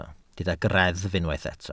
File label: "greddf", Welsh